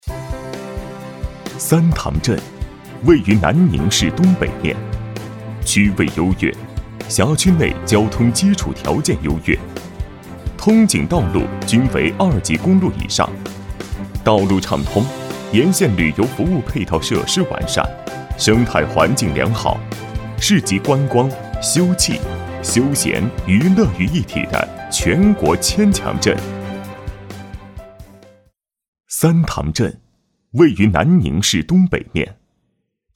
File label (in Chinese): 男 专题 大气磁性; 花漾三塘